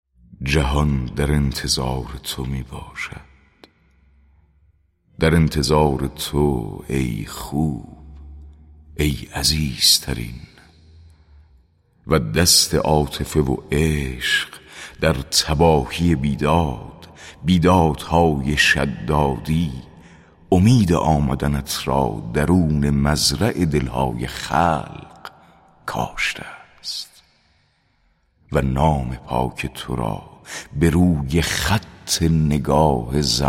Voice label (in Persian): دکلمه; جهان در انتظار تو می باشد; مدح یا مناجات